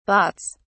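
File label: "bahts", British English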